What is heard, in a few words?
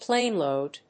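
"planeload", English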